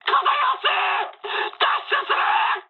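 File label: on fire mood med, speech japanese; War Thunder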